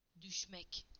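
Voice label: "to fall", Turkish; PictureDictionary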